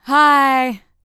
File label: FEM. SPOKEN; R&B Dance